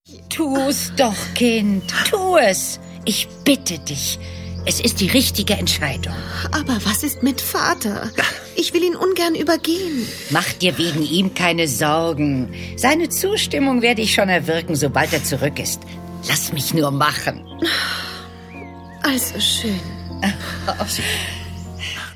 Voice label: Hörspiel, frauen; sprecher; Sherlock Holmes

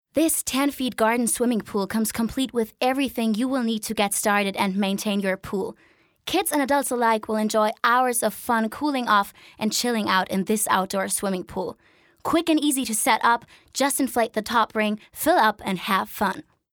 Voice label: englisch, frauen

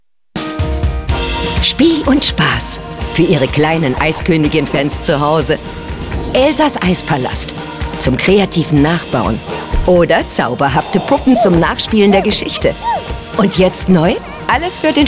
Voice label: Off, frauen; sprecher; Spots; ToysRus

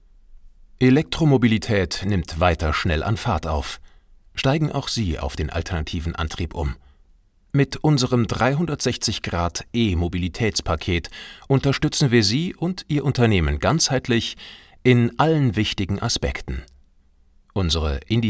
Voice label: Imagefilm, maenner; Deutsche Leasing

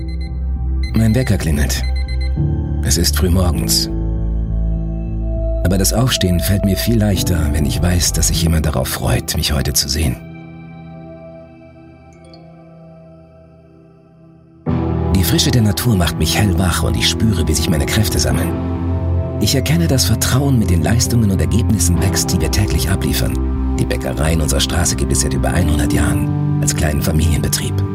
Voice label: Imagefilm, maenner; Finanzplanung

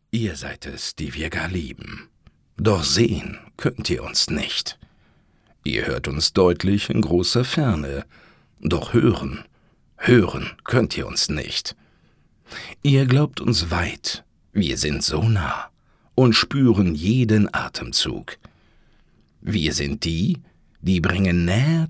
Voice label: poetisch; maenner

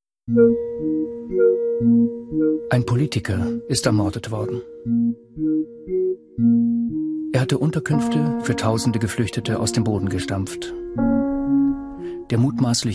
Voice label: Kommentar, maenner; Die Legende vom Einzeltäter

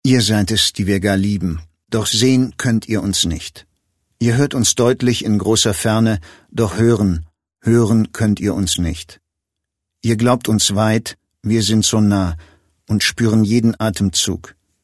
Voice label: sachlich, maenner

